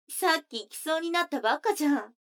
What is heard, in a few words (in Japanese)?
女の子 台詞, ASMR